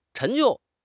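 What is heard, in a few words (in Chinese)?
"陈旧", Chinese; HSK6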